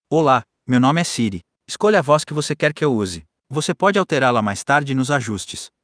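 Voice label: Brazilian Portuguese; iOS 26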